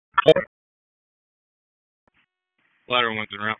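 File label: FIRE Operations, p25; FIRE OPS 1; wake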